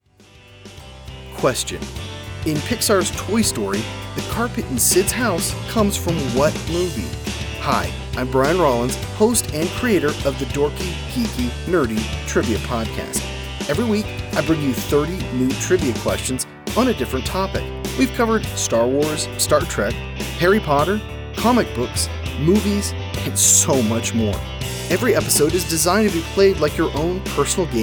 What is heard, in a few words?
Promo; Toy Story